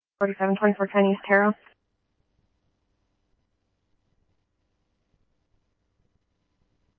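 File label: scanner, Phoenix Police Department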